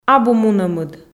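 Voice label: "абу мунӧмыд", Komi, female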